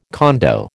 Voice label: "condo", American